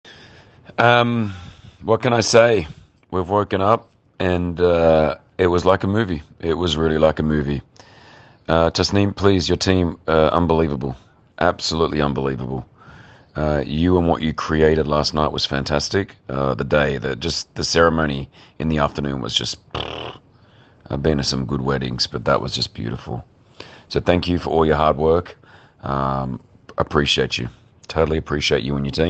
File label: testimonial; 60th annivesary